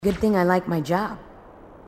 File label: marine tough